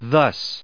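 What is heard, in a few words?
"thus", American English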